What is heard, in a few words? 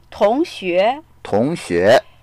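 "tong2xue2", Mandarin Chinese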